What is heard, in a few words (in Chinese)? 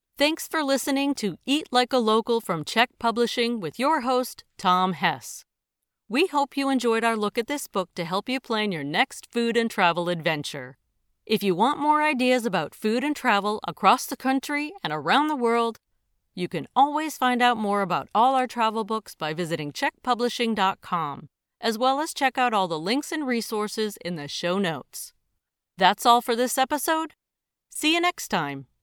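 外籍女声 主播 美式英语; 15系列美式英式英语列表合集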